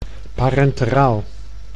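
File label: "parenteraal", Dutch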